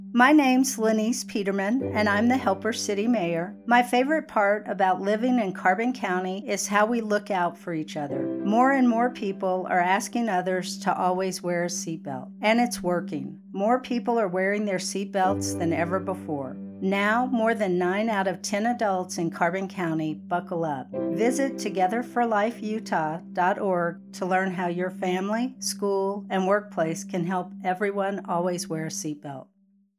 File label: Keyleader Radio Ad; CARBON